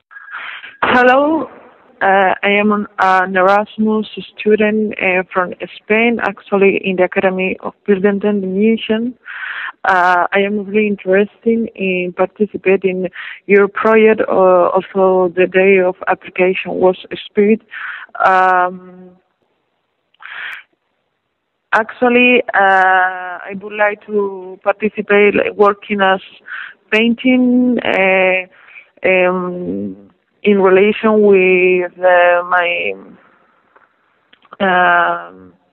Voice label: Voicemail